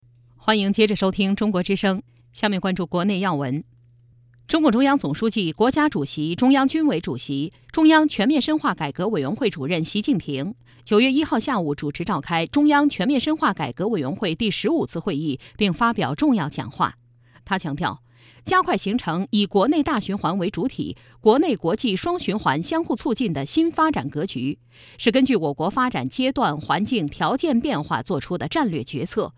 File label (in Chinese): 新闻; 央广新闻时空